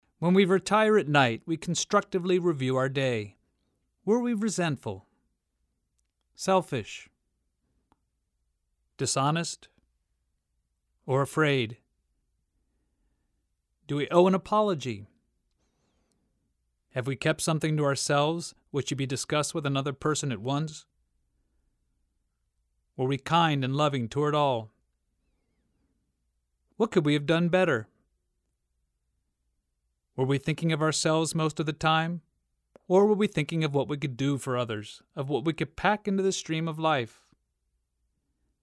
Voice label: Evening Meditation